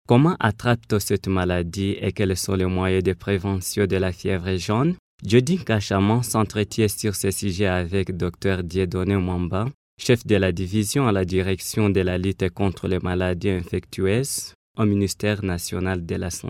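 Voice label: French African male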